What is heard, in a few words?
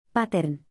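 "pattern", English word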